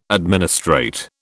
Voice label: "administrate", British

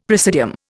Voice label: "praesidium", Indian; Dictionary

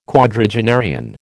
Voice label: "quadragenarian", American

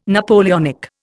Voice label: "Napoleonic", Indian

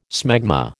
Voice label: "smegma", American

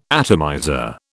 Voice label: "atomizer", British

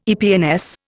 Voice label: "EPNS", Indian

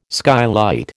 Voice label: "skylight", American

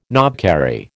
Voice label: "knobkerrie", American

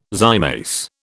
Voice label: "zymase", British

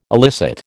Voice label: "elicit", American

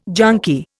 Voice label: "junkie", Indian

